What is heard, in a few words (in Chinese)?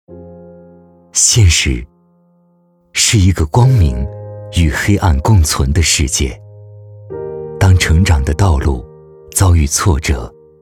男, 走心